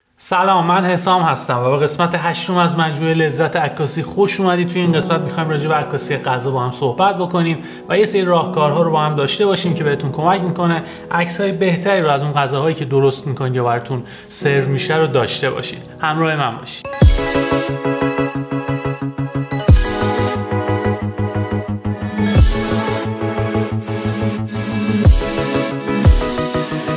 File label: persian